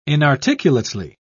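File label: "inarticulately", British English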